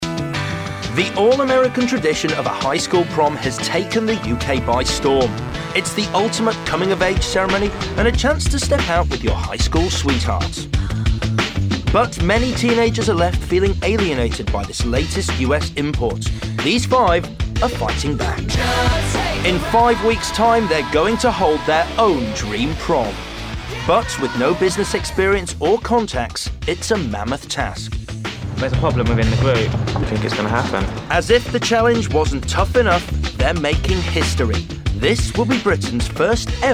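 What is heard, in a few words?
documentary; gay prom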